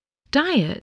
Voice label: "diet", english spelling